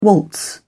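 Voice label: "waltz", English, British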